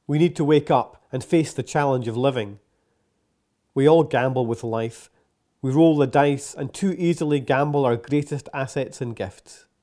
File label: narration